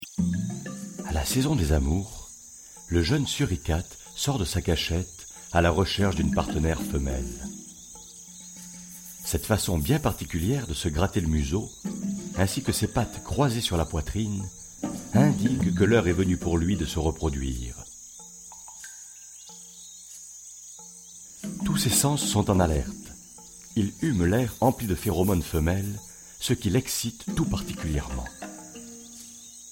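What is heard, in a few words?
French male, documentary; Français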